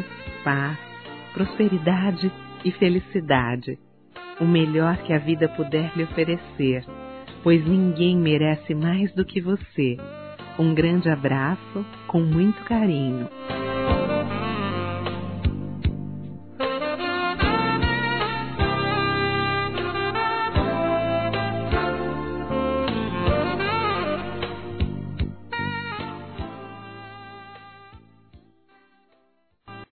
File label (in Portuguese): DECLARAÇÃO DE AMIZADE, Telemensagens, Fem